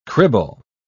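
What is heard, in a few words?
"cribble", British English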